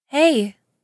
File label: girl hey ringtone; notification sounds